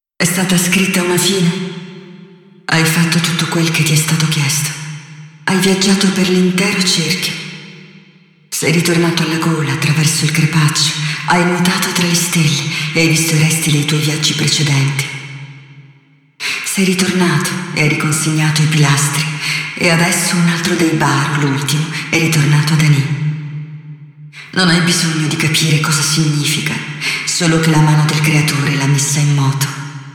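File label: Speech italian; Yeesha; moul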